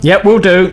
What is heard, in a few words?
Yes, male, voice commands; svencoop